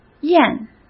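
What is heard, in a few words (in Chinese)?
"验", Mandarin Chinese; chinese-flashcards; hsk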